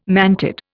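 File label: "mantid", Indian English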